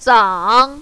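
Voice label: "zang" third tone, mandarin, female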